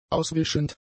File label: "auswischend", German; adjectives